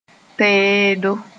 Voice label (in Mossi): "teedo", moore